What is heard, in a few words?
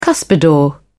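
"cuspidor", British English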